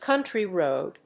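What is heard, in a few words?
"country road", English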